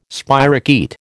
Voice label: "spirochaete", American

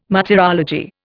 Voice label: "martyrology", Indian

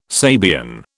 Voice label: "Sabian", British

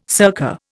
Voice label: "circa", Indian

